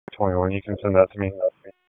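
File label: Police Northeast District Dispatch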